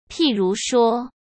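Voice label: "譬如说", Mandarin Chinese; chinese flashcards; hsk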